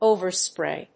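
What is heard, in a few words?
"over spray", English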